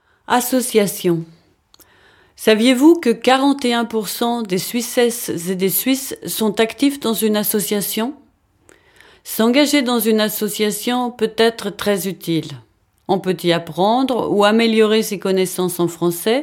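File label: French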